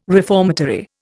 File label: "reformatory", Indian